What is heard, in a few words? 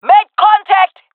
taking fire, jungle fem; vo; agents; Source Model Packs; Counter Strike Model Pack; CS2; jungle fem epic